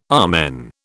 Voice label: "amen", British